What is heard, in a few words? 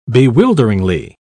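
"bewilderingly", British English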